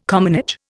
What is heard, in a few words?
"commonage", Indian